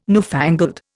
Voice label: "newfangled", Indian